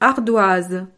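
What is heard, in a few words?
"ardoise", French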